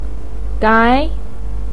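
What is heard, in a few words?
"gai" second tone, Mandarin Chinese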